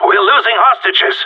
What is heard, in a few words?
hostage down, radio; GSG9